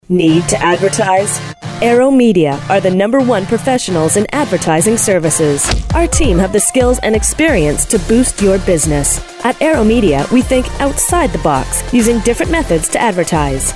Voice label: Spot; ARROW MEDIA